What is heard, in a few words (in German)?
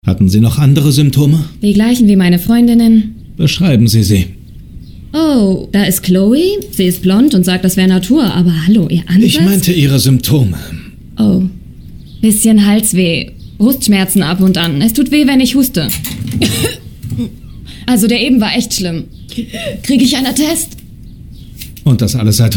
Synchronrolle; Doc Martin-Becky Trevean